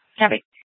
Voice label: Mesa PD Superstition Dispatch, scanner; Mesa Simulcast